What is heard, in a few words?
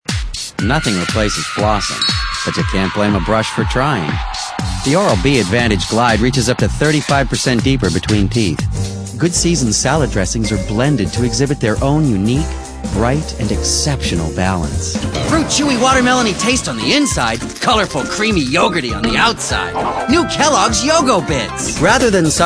male sophisticated